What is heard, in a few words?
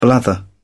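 "blather", British English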